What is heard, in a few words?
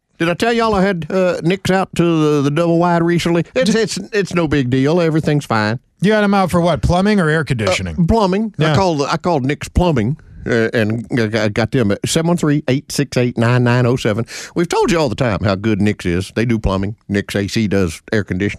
RADIO AD; nicks plumbing radio ads